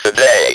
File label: "today", Speak & Spell